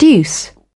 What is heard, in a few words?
"deuce", British